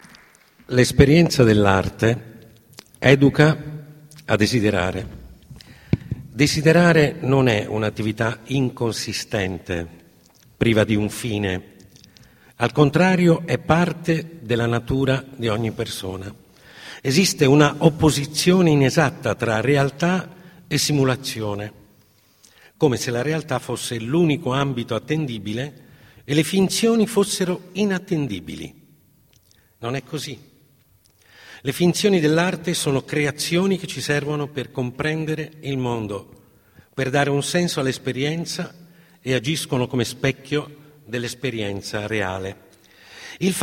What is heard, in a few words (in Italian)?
Lettura